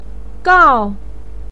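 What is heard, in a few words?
"gao" fourth tone, chinese, voice pinyin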